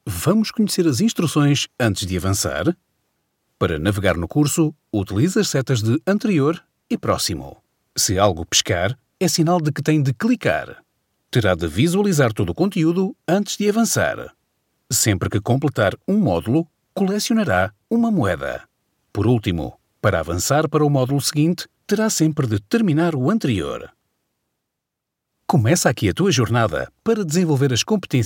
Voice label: Portuguese voiceover, e-learning

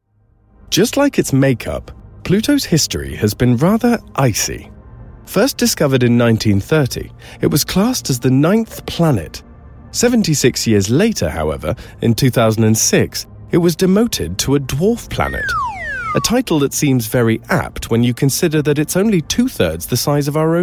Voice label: corporate

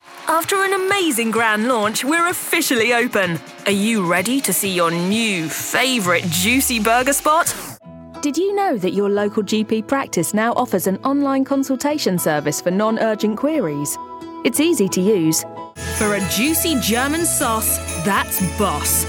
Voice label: radio ads, voiceover

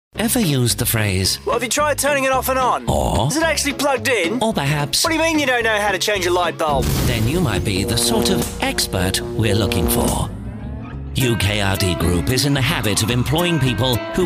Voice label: creepy posh character

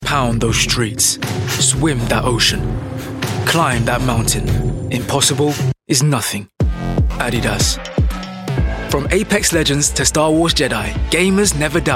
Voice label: commercial, Cool Urban Youthful Voiceover; commercial reel

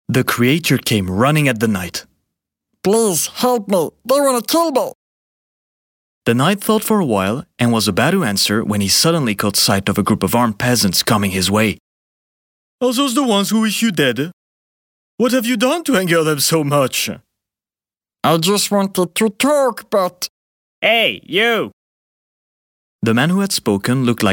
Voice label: french male child oriented projects en

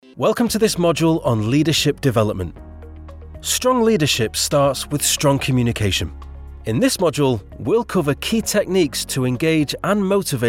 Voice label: manchester voice e-learning

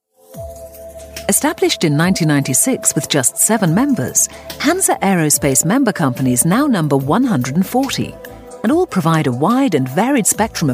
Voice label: corporate, Corporate British English RP

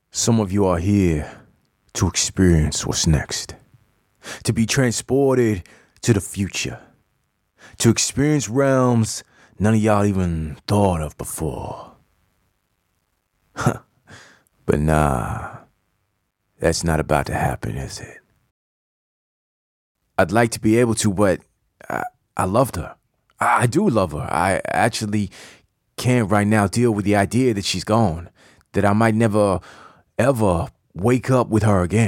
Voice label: urban male character, Urban Male Voice

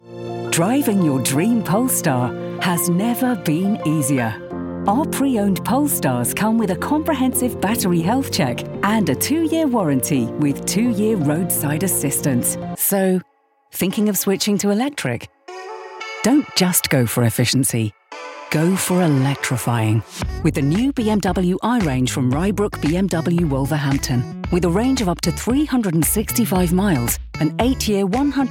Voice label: automotive, Warm Natural Classy Voiceover

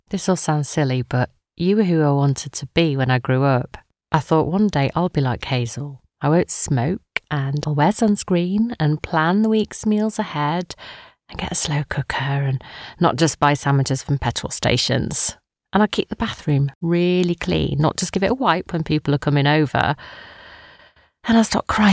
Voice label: natural

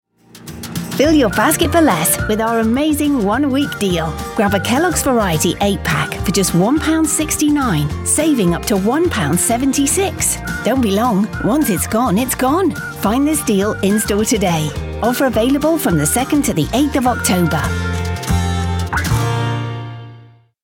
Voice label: system announcements

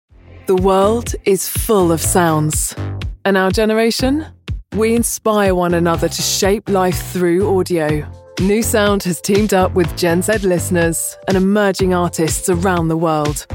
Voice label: natural

